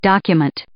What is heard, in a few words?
"document", English